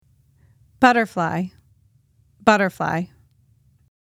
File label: butterfly english; insects-animals